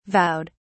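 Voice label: "vowed", British English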